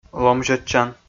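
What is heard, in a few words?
"ломзьӧдчан", Komi, male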